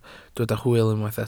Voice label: "hwyl", Welsh